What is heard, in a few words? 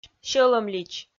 "сьӧлӧмлич", Komi